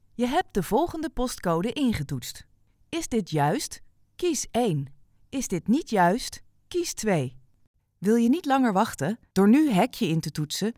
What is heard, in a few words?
IVR Prompts